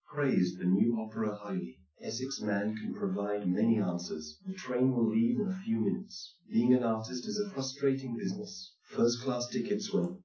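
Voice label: male speech; SiSEC10; underdetermined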